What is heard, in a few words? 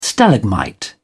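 "stalagmite", British English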